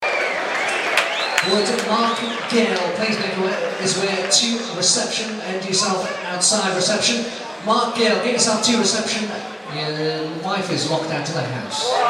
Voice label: best tannoy announcement